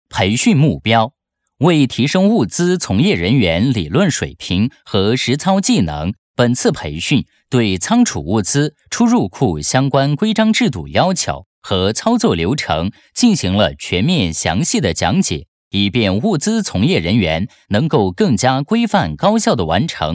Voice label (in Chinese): 课件培训 沉稳; 目标